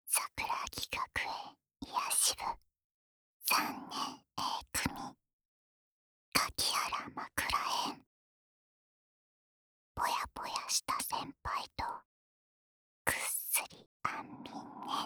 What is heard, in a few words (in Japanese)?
タイトルコール